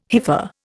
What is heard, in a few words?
"hypha", Indian